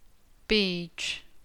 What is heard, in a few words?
"beach" "beech"